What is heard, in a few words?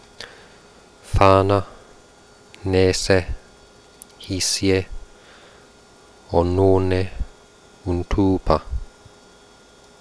quenya long vowels